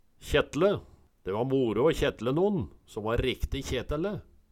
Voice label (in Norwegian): kjetle, Numedalsmål